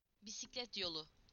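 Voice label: "bicycle path", Turkish; PictureDictionary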